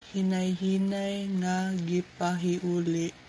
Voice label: Cebuano; slowly being restored - hinayhinay nga gipahiuli